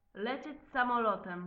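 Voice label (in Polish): "leciec samolotem", Polish; multimedia glossaries; transport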